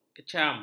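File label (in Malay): "kecam", Malay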